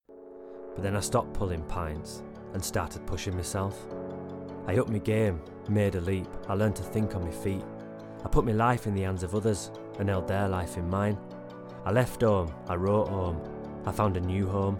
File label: Commercial; 20s-30s. Male. Studio. Yorkshire.